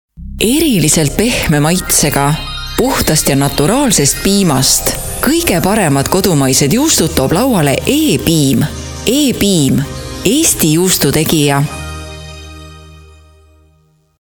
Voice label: estonian female; dictor